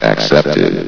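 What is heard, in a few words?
"accepted", announcer voice; Source Engine; bshift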